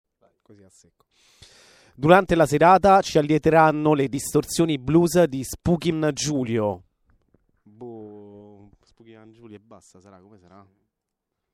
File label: spooky man